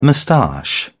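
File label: "moustache", British English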